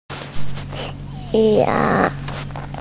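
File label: "ya2", Mandarin Chinese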